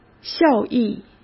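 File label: "效益", Mandarin Chinese; hsk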